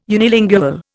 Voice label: "unilingual", Indian

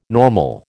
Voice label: "normal", American

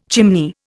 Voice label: "chimney", Indian

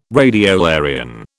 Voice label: "radiolarian", British

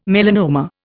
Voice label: "melanoma", Indian

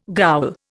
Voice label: "ghoul", Indian

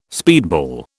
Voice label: "speedball", British

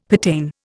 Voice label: "pertain", Indian; Dictionary

